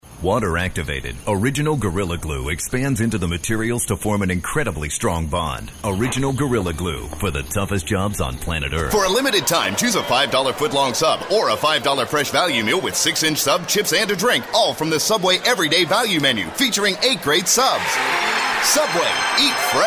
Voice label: Commercial